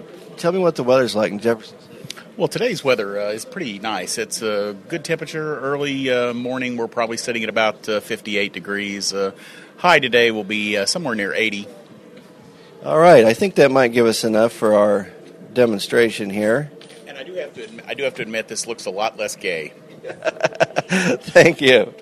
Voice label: iphone external mic; XLR Adapter Cable